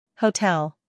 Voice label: "Hotel" us